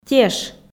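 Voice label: "теш", Komi, female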